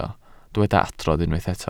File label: "adrodd", Welsh